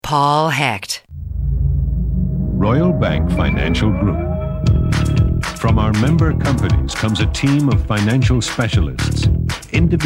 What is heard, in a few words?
commercial male